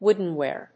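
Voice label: "woodenware", English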